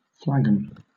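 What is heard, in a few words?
"flagon", British English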